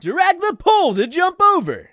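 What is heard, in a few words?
announcer pole vaulting tutorial; Nick Summer Sports Stars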